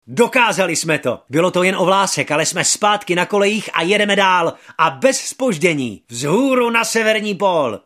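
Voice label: czech male voice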